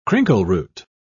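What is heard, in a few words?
"crinkleroot", British English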